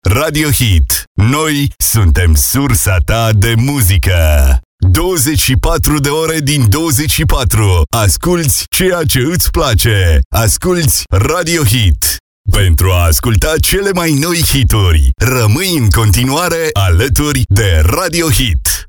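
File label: Romanian MALE radio imaging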